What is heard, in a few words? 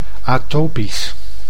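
"atopisch", Dutch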